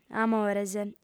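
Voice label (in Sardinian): "amores", lingua sarda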